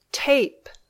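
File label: "tape", English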